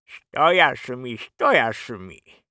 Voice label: oldman win